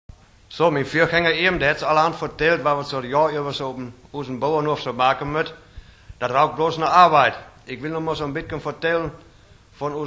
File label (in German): Westfaelisch; Niederdeutsch